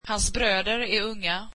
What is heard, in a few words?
swedish his brothers are young